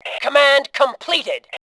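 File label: "completed", female voice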